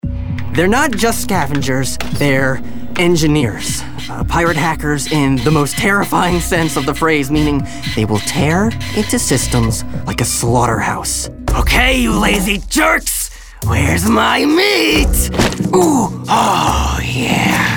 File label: animation VO